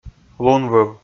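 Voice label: "лунвыв", Komi, male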